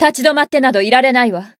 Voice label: Voice win jp